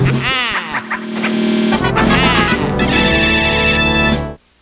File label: battle end jp